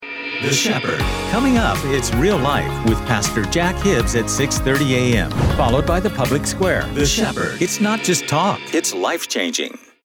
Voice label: english american radio imaging